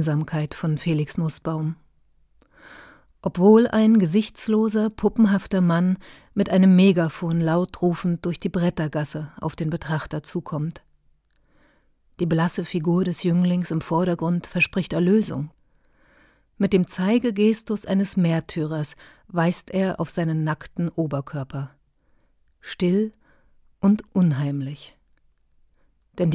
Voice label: audioguide jüdisches museum

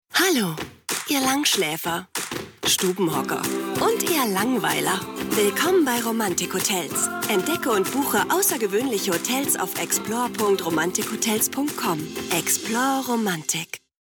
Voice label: romantik; explore

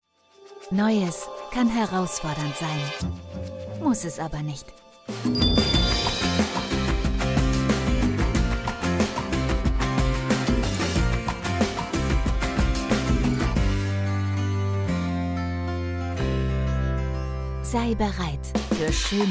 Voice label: Online Spot deutsch; Britax Roemer iSENSE MODULAR SYSTEM

